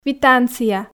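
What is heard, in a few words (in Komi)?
"квитанция", Komi, female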